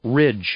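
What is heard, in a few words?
"ridge", English, male; noun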